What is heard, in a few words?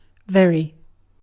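"very", British English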